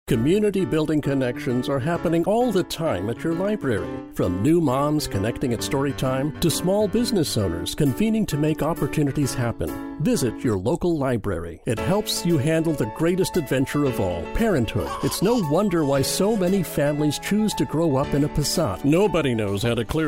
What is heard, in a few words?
Commercial, en-US